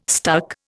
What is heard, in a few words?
"stuck", Indian